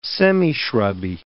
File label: "semishrubby", English